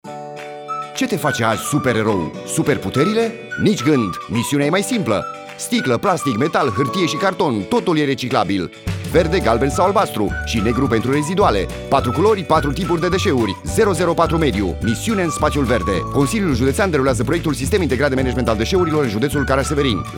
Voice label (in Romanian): Spot Radio